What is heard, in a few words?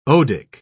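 "odic", English, British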